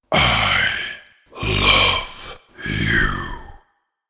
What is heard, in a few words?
I Love You Spoken, I love you spoken in deep husky male voice.; Recorded Voice